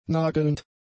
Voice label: "nagelnd", German; adjectives